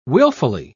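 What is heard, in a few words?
"wilfully", British English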